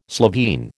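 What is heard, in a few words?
"Slovene", American English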